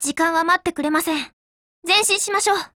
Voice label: ATTACK, JP; CZ100